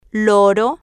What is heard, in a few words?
"loro", spanish